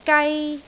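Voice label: "gai1", Cantonese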